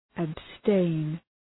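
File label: "abstain", English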